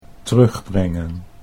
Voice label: "terugbrengen", Dutch

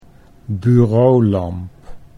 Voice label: "bureaulamp", Dutch

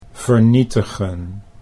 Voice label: "vernietigen", Dutch

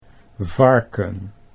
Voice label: "varken", Dutch; 'pig'

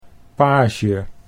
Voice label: "page", Dutch

